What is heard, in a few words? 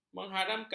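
"mengharamkan", Malay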